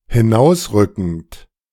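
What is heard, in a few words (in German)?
"hinausrückend", German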